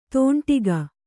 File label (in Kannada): "ತೊಂಡಿಗ", Kannada